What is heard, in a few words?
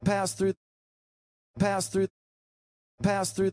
passed through 3x, connected speech